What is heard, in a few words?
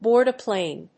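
"board a plane", English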